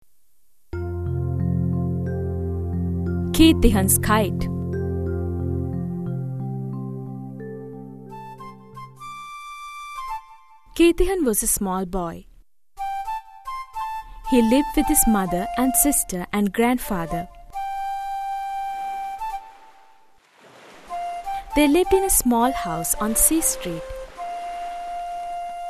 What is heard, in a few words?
English